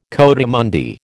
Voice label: "coatimundi", American

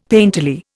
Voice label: "painterly", Indian; Dictionary

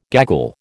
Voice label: "gaggle", American

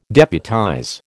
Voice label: "deputize", American

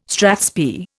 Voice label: "strathspey", Indian

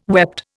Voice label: "wept", Indian

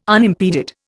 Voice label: "unimpeded", Indian

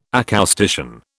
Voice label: "acoustician", British

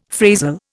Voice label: "phrasal", Indian; Dictionary

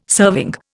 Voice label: "serving", Indian

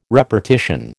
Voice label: "repartition", American

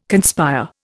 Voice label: "conspire", Indian

